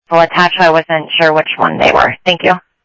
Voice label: Info Sheriff Common, scanner audio trunk